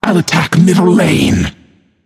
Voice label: vox self attack; smite; arachne

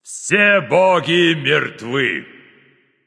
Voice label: kill all, russian; caster

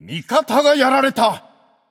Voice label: notify slain ally, japanese; caster

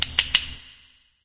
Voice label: vox click; smite; camazotz

